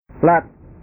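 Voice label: "lat", Indonesian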